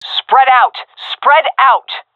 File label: request spread out, seal fem; vo; Counter Strike Model Pack; CS:GO